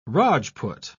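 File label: "rajput", British English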